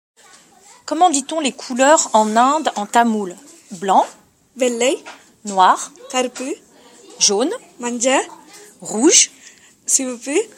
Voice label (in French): dit les couleurs en tamoul indien